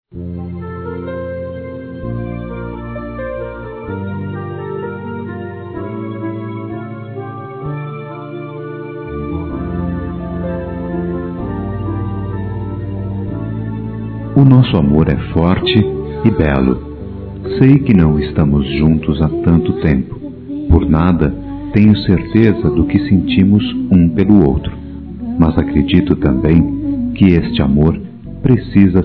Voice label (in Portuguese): Melhorar Relação Masculino; Telemensagens; Dia Dos namorados; Telemensagens 2017